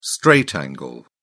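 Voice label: "straight angle", British English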